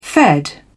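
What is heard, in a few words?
"fed", British English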